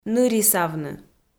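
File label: "нырисавны", Komi, female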